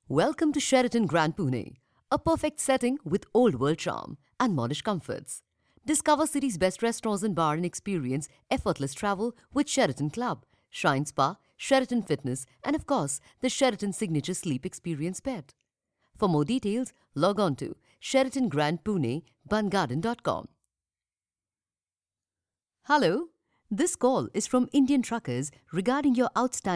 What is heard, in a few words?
English, IVR